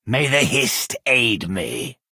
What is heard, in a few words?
Argonian male implore